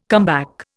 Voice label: "comeback", Indian

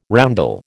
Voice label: "roundel", American

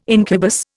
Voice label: "incubus", Indian

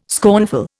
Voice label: "scornful", Indian

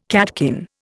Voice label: "catkin", Indian

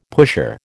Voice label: "pusher", American

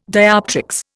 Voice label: "dioptrics", Indian

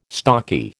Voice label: "stocky", American

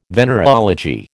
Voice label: "venereology", American

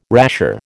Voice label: "rasher", American

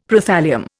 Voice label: "Prothallium", Indian; Dictionary

